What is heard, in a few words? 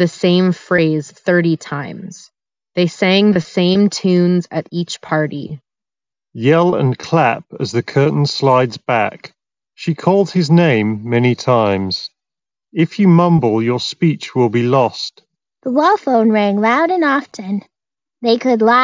OnePlus Nord Buds Ideal microphone sample